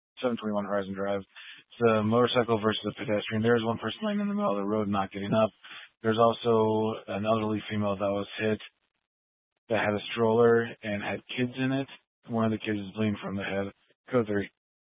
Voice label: Fire Department Dispatch; Grand Junction Fire Department Dispatch

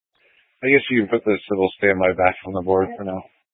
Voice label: Police Department Dispatch; Grand Junction Police Department Dispatch

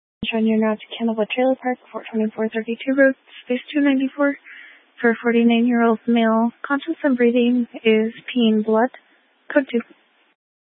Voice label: Fire Dispatch

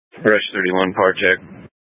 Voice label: Incident Tac, scanner; Incident Tac 1

